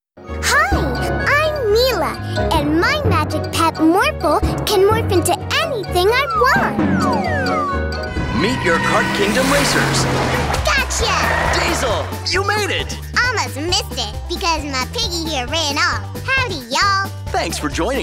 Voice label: Promo VO